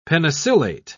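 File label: "penicillate", English, British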